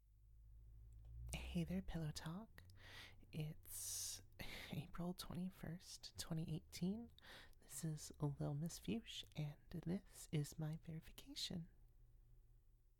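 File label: Verification, female; Pillow Talk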